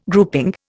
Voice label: "grouping", Indian

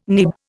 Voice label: "nib", Indian

